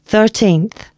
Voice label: announcements female